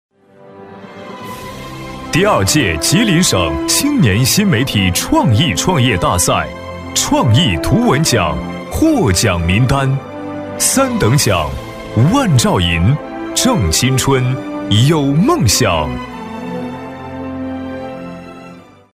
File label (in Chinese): 大气震撼 颁奖典礼; 政府颁奖典礼